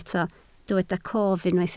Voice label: "cof", Welsh